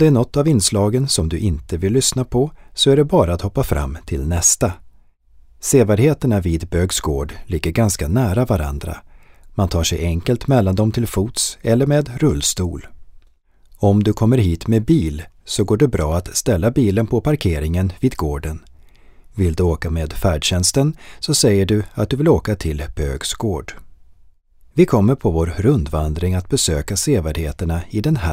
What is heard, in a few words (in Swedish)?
syntolkning välkommen; bogsgard